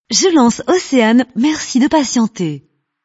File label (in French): lancement radio; oceane